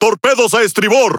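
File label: Spanish speech alarms torpedo right; World Of Warships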